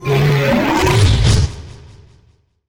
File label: Zombie Warrior attack